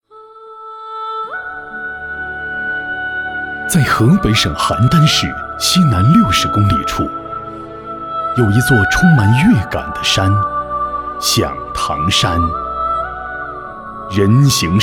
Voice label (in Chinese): 男 走心讲述; 响堂山石窟